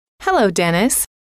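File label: "hello", female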